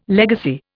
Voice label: "legacy", Indian

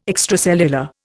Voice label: "extracellular", Indian

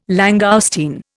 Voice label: "langoustine", Indian

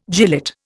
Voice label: "gilet", Indian

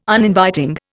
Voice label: "Uninviting", Indian

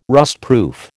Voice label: "rustproof", American

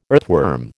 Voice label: "earthworm", American

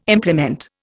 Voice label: "implement", Indian

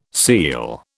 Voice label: "seel", British

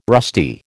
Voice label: "rusty", American

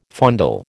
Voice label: "fondle", American

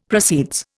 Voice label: "proceeds", Indian; Dictionary

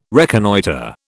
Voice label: "reconnoitre", British

